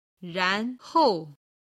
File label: "ran2hou4", chinese